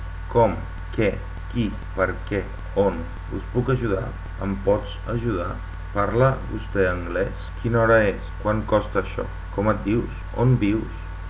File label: catalan; questions